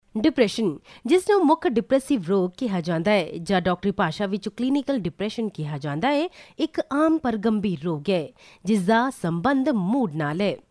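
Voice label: PUNJABI female, Punjabi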